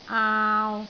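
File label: "aau3", Cantonese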